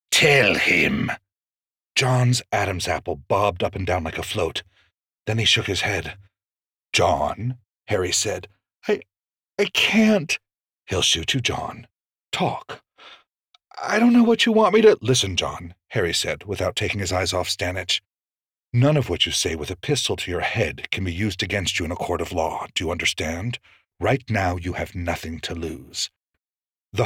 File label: Thriller, audiobooks; Redeemer